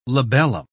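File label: "labellum", British English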